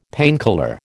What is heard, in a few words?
"painkiller", American